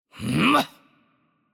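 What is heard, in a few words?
voice over, Chinese; change player; bloom minigame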